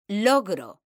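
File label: "logro", Spanish, female; mekanta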